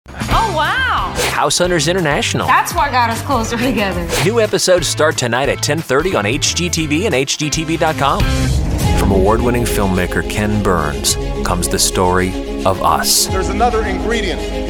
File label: Promo